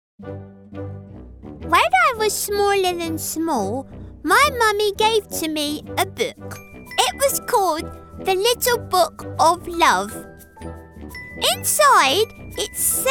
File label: CHARACTER